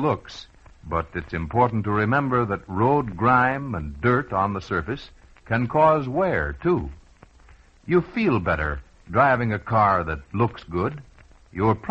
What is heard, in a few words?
Commercials Wax And Polish Your Car At Mobil Gas Dealers